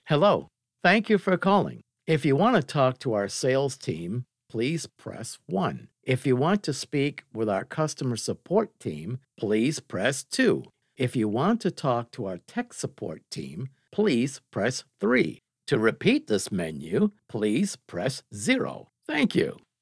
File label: PHONE SYSTEMS IVR